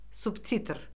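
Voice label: "subtitr", Azerbaijani; Orfoqrafiya Lüğəti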